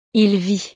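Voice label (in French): "il vit", French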